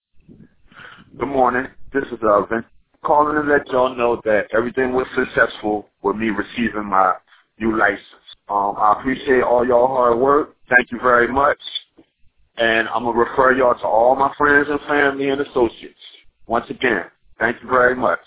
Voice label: Voicemail